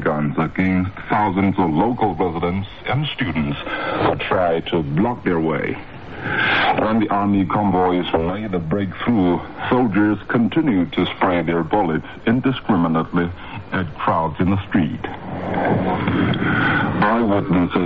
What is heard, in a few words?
Radio Beijing original english recording